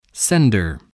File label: "sender", english spelling